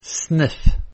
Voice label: "snif", pronunciation